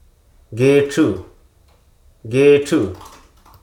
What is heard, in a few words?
"handloom", hruso